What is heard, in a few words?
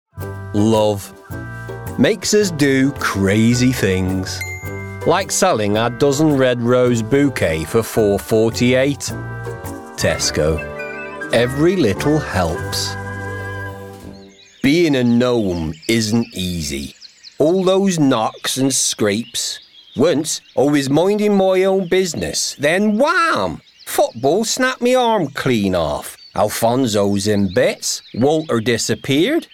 commercials